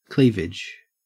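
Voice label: "cleavage", Australian English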